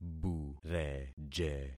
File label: "bureje", concat syllables; Affixing experiment